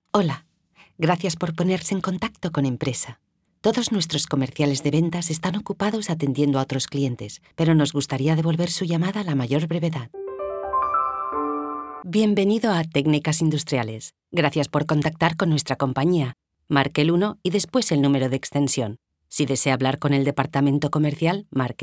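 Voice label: Answering Machine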